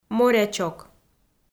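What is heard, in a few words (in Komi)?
"морячок", Komi, female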